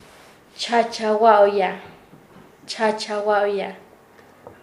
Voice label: Nasa Yuwe; hablar en voz alta; paez